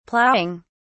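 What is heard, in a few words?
"plowing", British English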